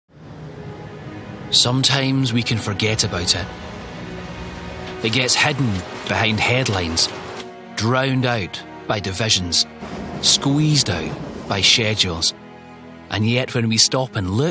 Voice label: Corporate